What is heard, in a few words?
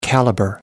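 "caliber", English, British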